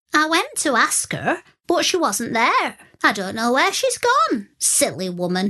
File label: Lancashire accent